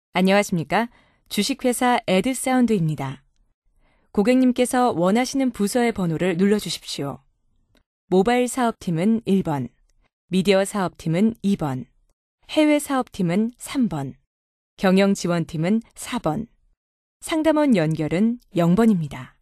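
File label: Korean female; ARS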